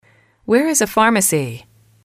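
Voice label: where is a pharmacy, female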